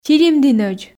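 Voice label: "чилимдінӧдз", Komi, female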